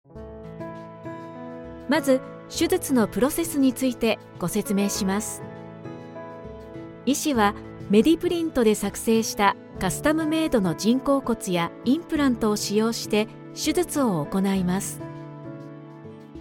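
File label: japanese explainer videos, Femelle; Vidéos explicatives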